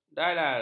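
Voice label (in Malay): "dalal", Malay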